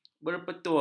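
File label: "berpetua", Malay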